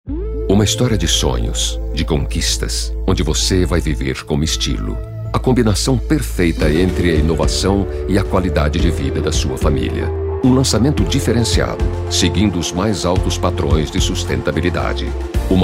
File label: spot; BROOKFIELD HORIZON